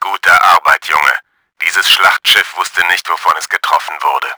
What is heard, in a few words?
BATTLESHIP DESTROYED, german; Battle Engine Aquila